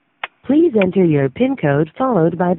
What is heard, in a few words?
Call recording; TONY EVENT; יעקב קבב קרית חיים